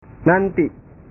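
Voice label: "nanti", Indonesian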